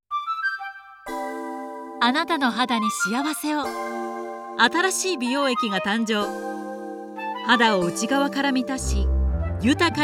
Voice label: cm cosmetics; MC・ナレーター